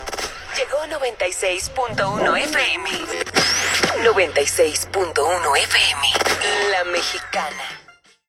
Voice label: Radio Imaging

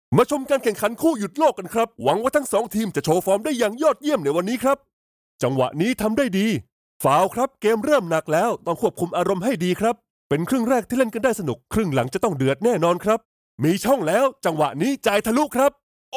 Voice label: Video Game Male Sport Various emotions

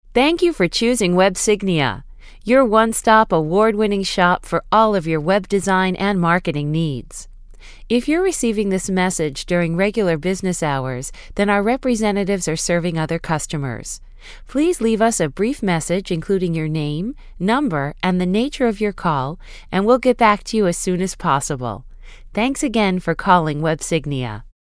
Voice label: basic vm

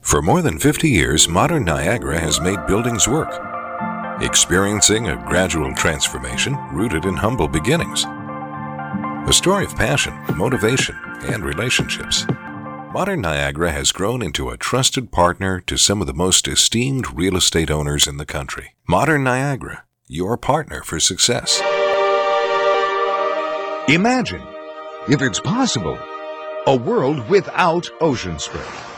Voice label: corp narration comp

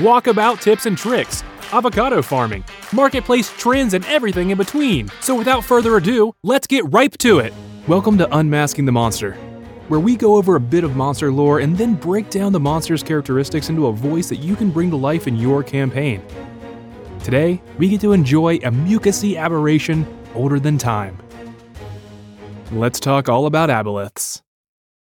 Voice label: Podcast Intro

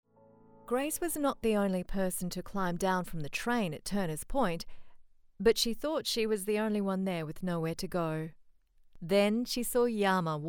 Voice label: fiction narration

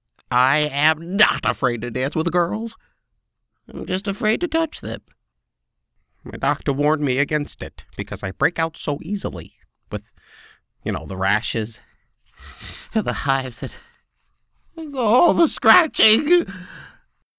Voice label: character voice

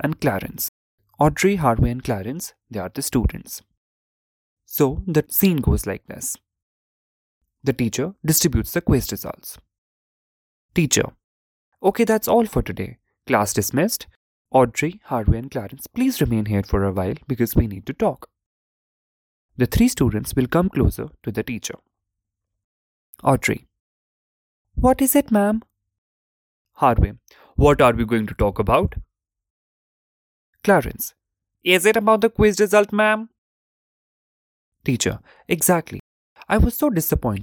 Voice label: Narration Classroom

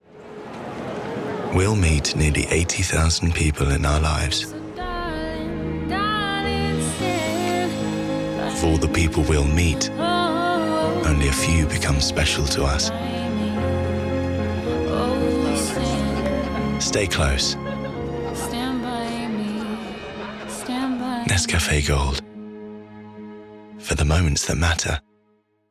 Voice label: TV Commercial; Nescafe Gold

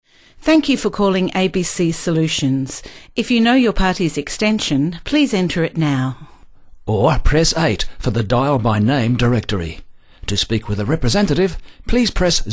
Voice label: Female Male Phone message

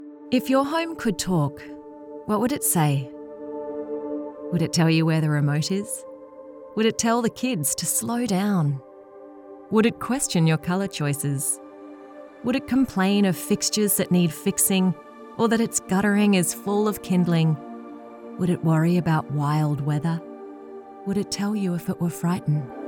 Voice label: with background music; Suncorp Home Resilience

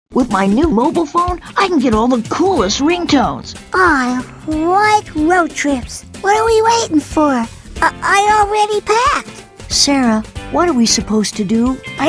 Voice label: Kid Voices

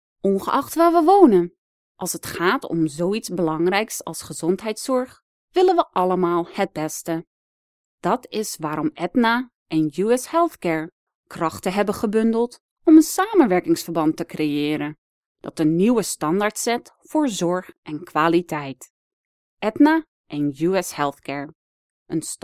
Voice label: Dutch Commercial

